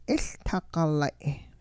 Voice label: a place halfway up Bald Hill, hupa